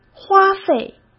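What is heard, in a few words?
"花费", Mandarin Chinese; chinese flashcards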